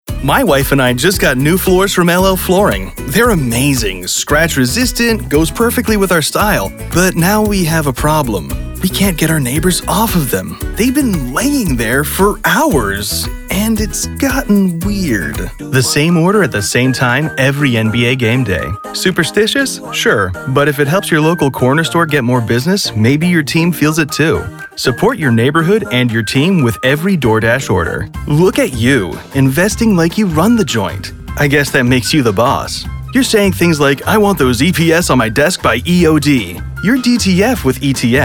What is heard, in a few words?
commercial; global voice acting academy